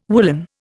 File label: "woollen", Indian